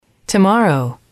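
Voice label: "tomorrow", English, female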